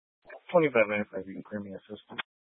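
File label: Sheriff Dispatch, Scanner audio recording archive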